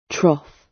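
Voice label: "trough", British English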